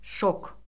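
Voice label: "şok", Azerbaijani; Orfoqrafiya Lüğəti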